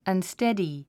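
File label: "unsteady", English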